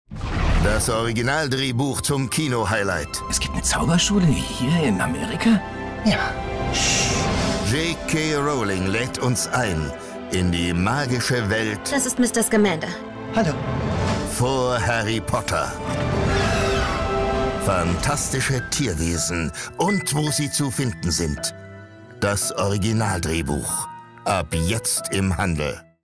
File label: trailer; phantastische tierwesen und wo sie zu finden sind das originaldrehbuch